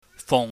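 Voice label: "fung", Cantonese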